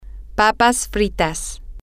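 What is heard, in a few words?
french fries, Spanish, female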